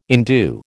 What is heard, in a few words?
"endue", American